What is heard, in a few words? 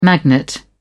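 "magnet", British English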